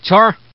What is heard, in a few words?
Man